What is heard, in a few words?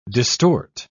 "distort", pronounce